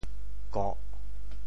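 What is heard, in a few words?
"koh4", Teochew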